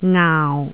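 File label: "ngaau4", Cantonese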